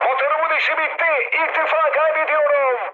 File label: damaged engine, mood high, speech tur; War Thunder